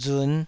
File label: "run5", taiwanese